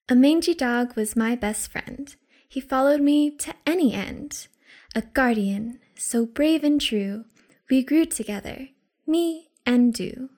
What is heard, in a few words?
Poetry; Mangy Dog